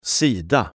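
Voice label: "Sida", Swedish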